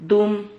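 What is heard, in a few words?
"dum", Spanish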